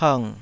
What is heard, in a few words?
"png3", Taiwanese